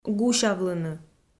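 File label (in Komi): "гусявлыны", Komi, female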